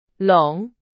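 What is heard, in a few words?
Chinese, vocabulary; hsk 3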